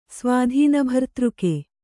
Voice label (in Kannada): "ಸ್ವಾಧೀನಭರ್ತೃಕೆ", kannada